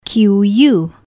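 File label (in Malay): "qu", Malay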